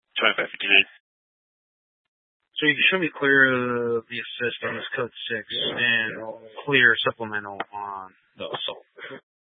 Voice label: Sheriff Dispatch; Mesa County

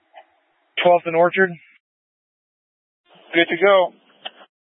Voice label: Tactical Incidents, scanner

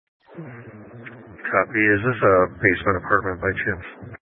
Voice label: Sheriff Dispatch; Mesa County

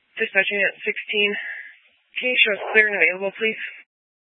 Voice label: Fire Dispatch

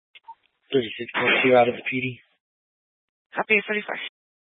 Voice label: Police Dispatch, scanner; Police 1 Dispatch

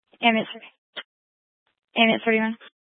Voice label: Grand Junction Fire Department Dispatch

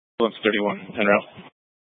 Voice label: Fire Department Dispatch, scanner; Grand Junction

